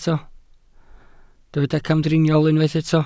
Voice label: "camdriniol", Welsh